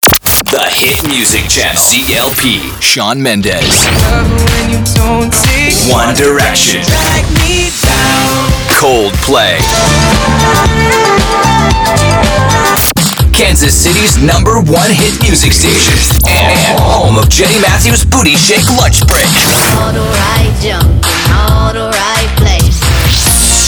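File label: radio contemporary hit radio; radio imaging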